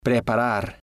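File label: "preparar", Spanish, male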